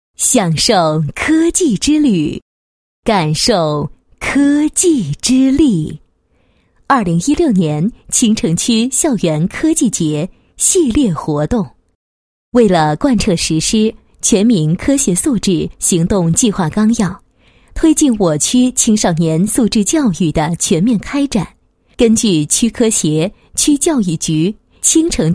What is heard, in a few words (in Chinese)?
女 活动解说; 清城区校园科技节系列活动